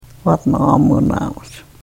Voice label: karuk

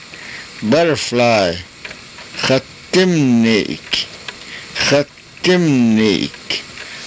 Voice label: "xatimniik", karuk

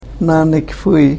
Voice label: karuk

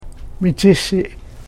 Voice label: "michishiih", karuk

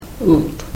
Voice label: "uut", karuk

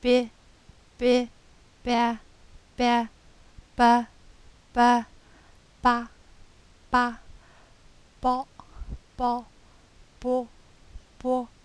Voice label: vowels glottal

